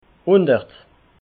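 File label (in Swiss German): "hundert", Alsatian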